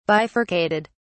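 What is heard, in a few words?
"bifurcated", English, British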